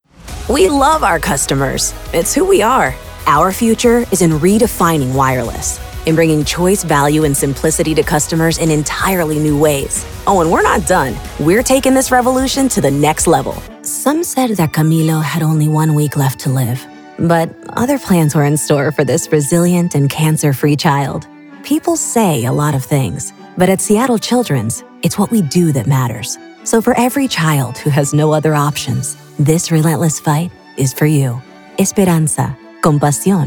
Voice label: Corporate narration